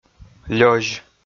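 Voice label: "лёзь", Komi, male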